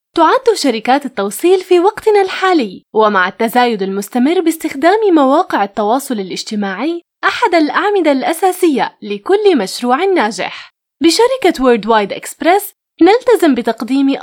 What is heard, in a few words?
Arabic, Female